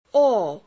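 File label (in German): Phoneme, chinesisch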